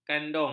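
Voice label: "kandung", Malay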